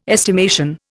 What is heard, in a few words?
"estimation", Indian